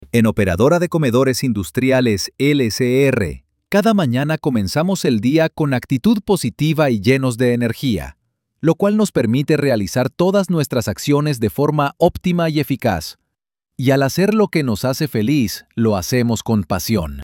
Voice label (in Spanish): Voz de animos